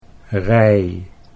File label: "rij", Dutch